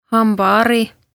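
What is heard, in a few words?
"Hambahari", Estonian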